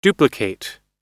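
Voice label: "duplicate", American English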